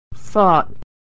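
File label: "fought", American English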